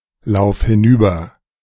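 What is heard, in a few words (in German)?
"lauf hinüber", German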